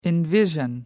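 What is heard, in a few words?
"envision", English, British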